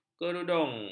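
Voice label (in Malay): "kerudung", Malay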